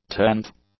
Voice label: "tenth", English; adjectives

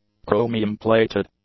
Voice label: "chromium-plated", English; adjectives

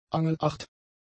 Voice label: "angelacht", German; adjectives

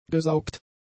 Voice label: "gesaugt", German; adjectives

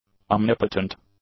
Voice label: "omnipotent", English; adjectives

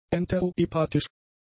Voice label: "enterohepatisch", German; adjectives

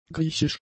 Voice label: "griechisch", German; adjectives

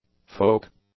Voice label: "folk", english; adjectives

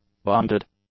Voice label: "bonded", English; adjectives

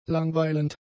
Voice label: "langweilend", German; adjectives

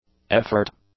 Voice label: "effort", English; nouns

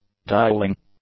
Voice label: "dialing", English; nouns

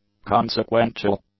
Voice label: "consequential", English; adjectives

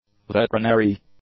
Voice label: "veterinary", English; adjectives

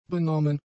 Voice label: "benommen", German; adjectives

